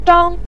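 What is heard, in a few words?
"zhang" neutral tone, Mandarin Chinese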